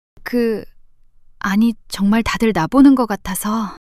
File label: shy; monologue